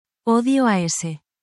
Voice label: spanish, spanish phrases negative language